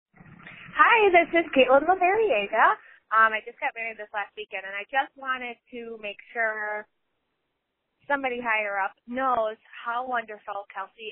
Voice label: Voicemail